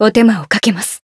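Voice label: healed, Japanese; felmina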